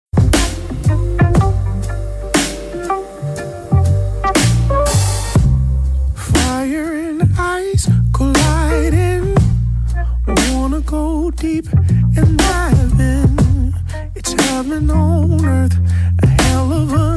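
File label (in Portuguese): birthday PAI female, telemensagem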